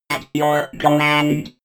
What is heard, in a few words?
robot voice at your command fx